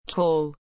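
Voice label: "call", English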